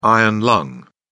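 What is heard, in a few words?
"iron lung", British English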